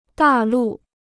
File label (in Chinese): "大陆", Chinese